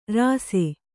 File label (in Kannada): "ರಾಸೆ", Kannada